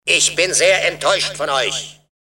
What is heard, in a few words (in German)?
entaeuscht; cstrike